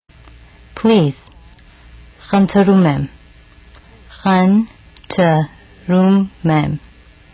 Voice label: Armenian Please

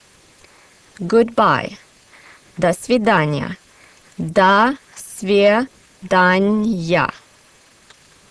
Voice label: Russian Good Bye

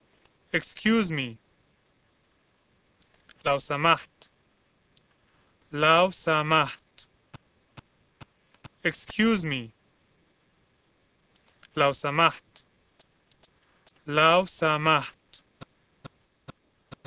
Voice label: Arabic Excuse

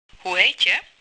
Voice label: Dutch Name

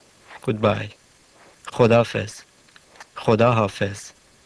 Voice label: Persian Good Bye

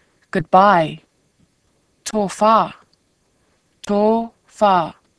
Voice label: Samoan Good Bye

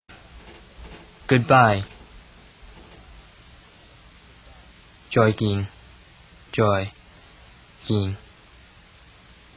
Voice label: Cantonese Good Bye

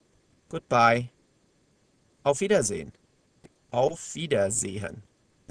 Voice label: German Good Bye

